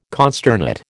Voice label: "consternate", American

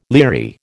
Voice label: "leery", American

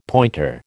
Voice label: "pointer", American

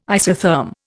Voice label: "isotherm", Indian

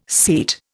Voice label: "seat", Indian

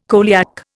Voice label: "coeliac", Indian

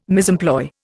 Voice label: "misemploy", Indian

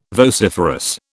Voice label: "vociferous", British

